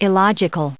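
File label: "illogical", US English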